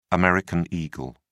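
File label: "american eagle", English, British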